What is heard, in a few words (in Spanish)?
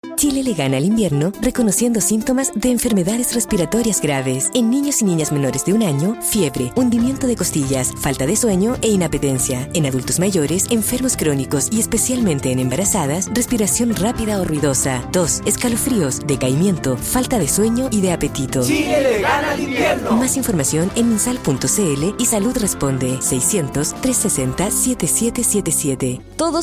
FRASE RADIO SINTOMAS; MINSAL